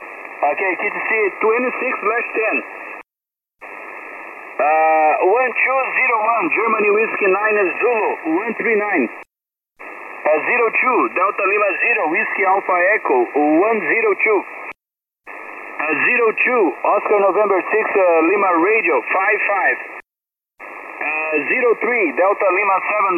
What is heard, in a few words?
ssb, contest; beginner